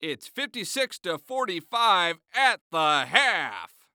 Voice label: Sports Human Voice Male Basketball Announcer; It's Fifty Six To Forty Five At The Half